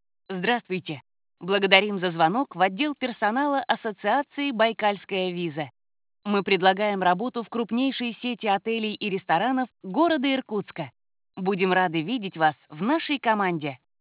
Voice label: IVR; байкальская виза